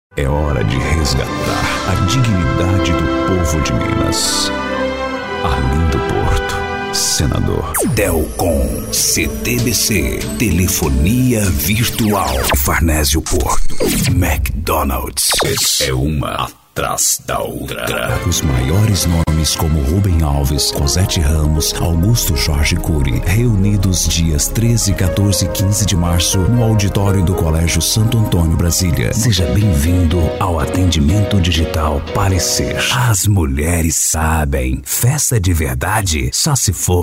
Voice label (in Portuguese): locutor